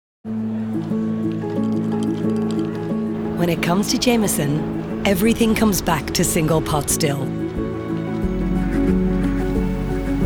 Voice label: Irish female voiceover, Television Spots; 30 Sec; Jameson Whiskey